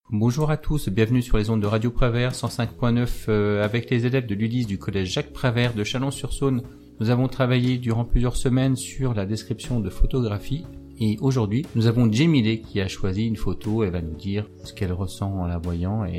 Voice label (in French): micro emission musique; micro-émissions des ULIS; radio prevert